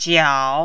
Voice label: "jiao3", Mandarin